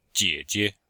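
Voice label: "jie3jie5", Chinese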